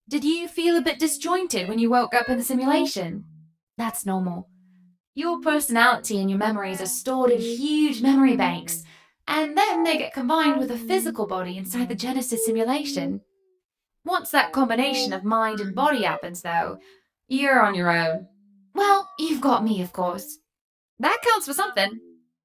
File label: Glitch; HLNA